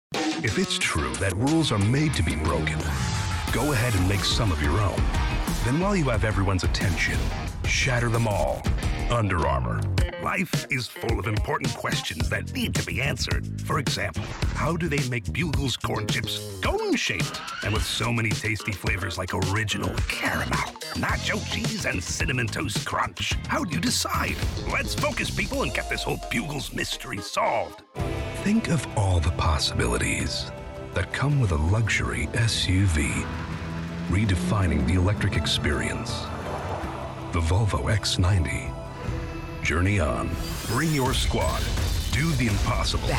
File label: Commercial